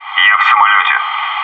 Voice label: airplane, bot